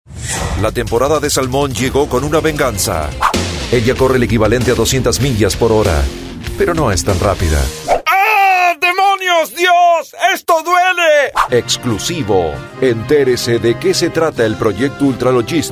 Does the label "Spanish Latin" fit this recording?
Yes